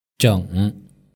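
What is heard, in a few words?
"zheng", Mandarin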